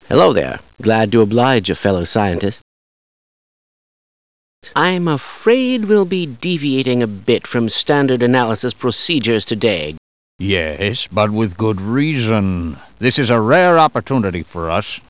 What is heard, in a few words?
sci talk; tfc